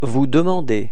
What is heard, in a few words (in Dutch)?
demander vous, frans